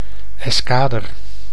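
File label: "escader", Dutch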